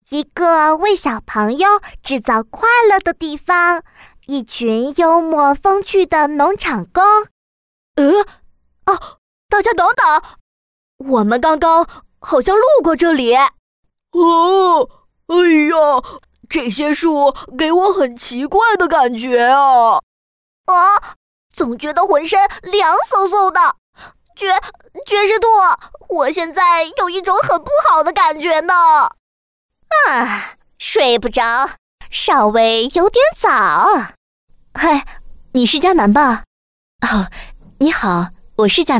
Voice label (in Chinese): 女 童声 百变样音